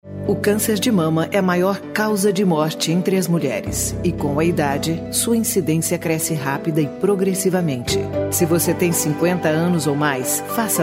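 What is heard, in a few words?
br portuguese commercial soft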